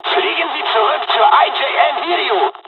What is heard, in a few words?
evac, dialogs german; midway